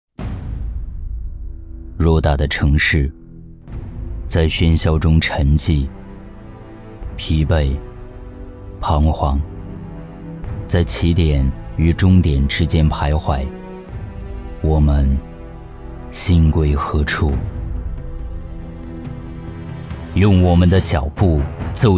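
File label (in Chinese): 栏目宣传沉稳磁性; 重走玄奘之路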